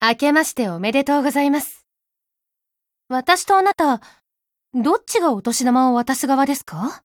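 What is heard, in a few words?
Spring Fes Japanese; Balthilde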